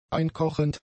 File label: "einkochend", German; adjectives